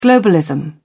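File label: "globalism", British English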